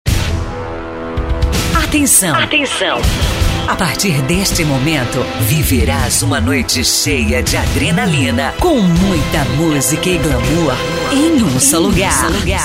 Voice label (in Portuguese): LOCUTOR